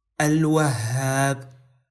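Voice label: "al wahhab", Arabic; 99names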